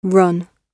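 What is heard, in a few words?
"run", British English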